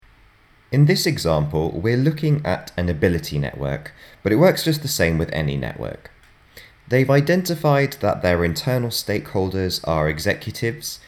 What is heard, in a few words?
Narration; Radius